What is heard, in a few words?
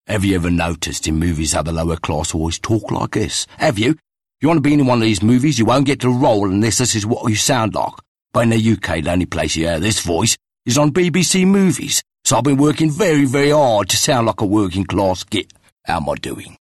Accent Cockney